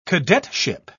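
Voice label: "cadetship", British English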